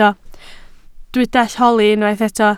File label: "holi", Welsh